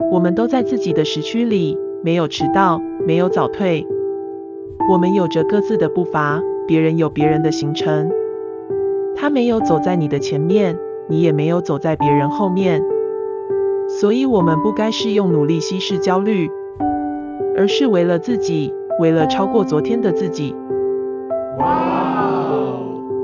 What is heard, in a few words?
Female